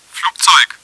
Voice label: airplane, german, bot; csco; csgo